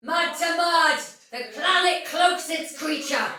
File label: sight lost shout soldier female, battle barks; Dialog; Sinecure